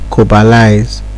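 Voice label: "kobalize", Nigerian Pidgin, male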